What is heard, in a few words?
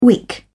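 "wick", British English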